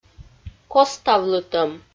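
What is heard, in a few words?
"коставлытӧм", Komi, female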